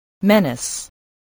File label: "menace", voice, spelling